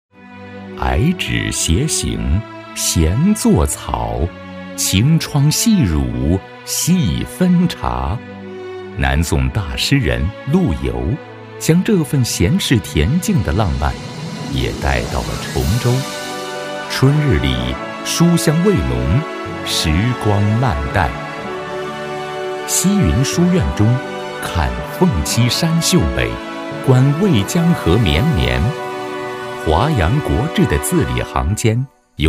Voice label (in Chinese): 男 宣传; 香约崇州